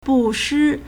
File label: "bu4shi1", Chinese